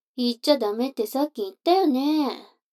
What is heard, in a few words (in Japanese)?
女の子 台詞, ASMR